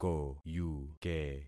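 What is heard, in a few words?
"koyuke", concatenated syllables; Affixing experiment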